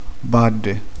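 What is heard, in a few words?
"palle", Italian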